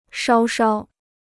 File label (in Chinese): "稍稍", Chinese